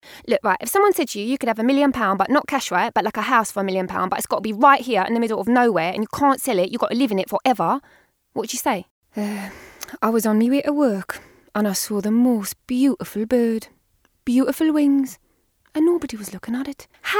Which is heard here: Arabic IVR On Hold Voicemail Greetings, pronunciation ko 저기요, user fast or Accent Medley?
Accent Medley